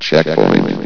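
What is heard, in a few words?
"checkpoint", male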